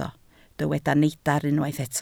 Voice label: "neidr", Welsh

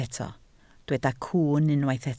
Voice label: "cwwn", Welsh

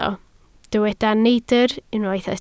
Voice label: "neidr", Welsh

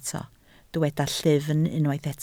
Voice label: "llyfn", Welsh

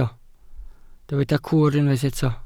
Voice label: "cwr", Welsh

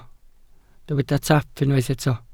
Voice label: "taap", Welsh

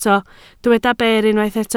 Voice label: "ber", Welsh

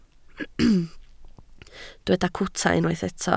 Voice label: "cwta", Welsh

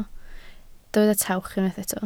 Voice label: "tawch", Welsh

